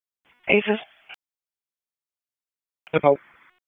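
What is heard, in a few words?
Police Northeast District Dispatch, p25; Raleigh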